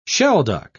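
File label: "shelduck", British English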